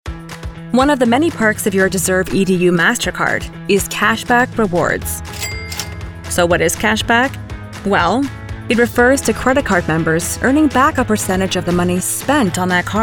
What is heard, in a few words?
Corporate Narration